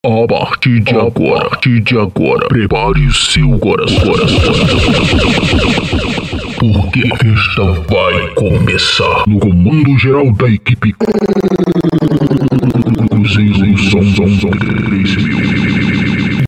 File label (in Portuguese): vinheta off de abertura